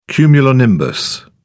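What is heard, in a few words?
"cumulonimbus", British English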